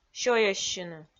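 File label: "сёйӧссьыны" Komi, female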